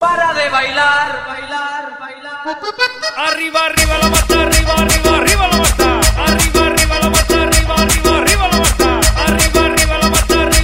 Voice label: Tamil

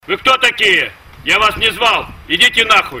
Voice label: Old Men

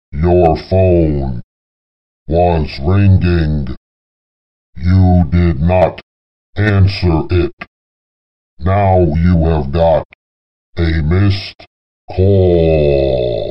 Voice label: Deep Voice Missed Ca

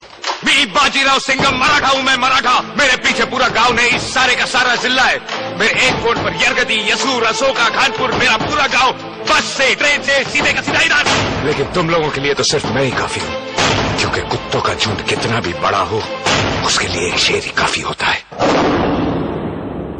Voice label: Dialog; Singham Sher

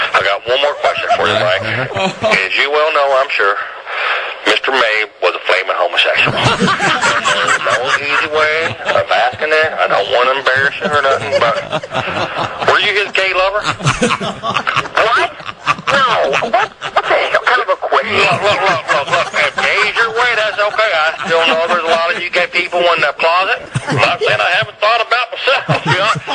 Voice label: Prank Call

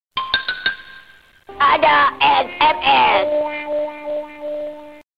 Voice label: Sms Doraemon Malay

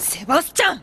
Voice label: angry

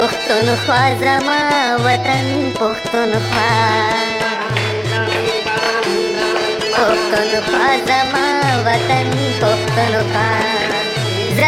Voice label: Pashto Funny

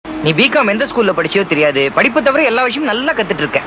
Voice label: Comedy

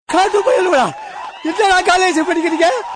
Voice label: Old Man Voice

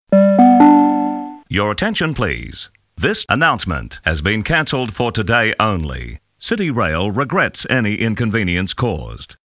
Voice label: Announcement

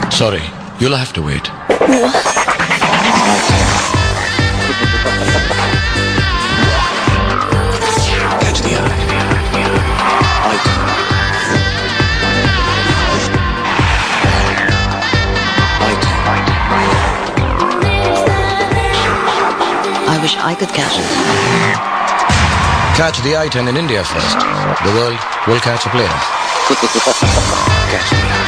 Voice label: Ad; Hyundai I10

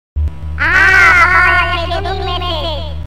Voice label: Balochi Funny

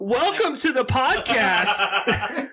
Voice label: Podcast Welcome

